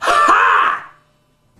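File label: "huh huh", vocal sample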